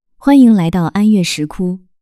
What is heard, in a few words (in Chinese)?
中文选项欢迎语音